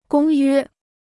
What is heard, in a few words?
"公约", Chinese